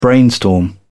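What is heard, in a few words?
"brainstorm", British English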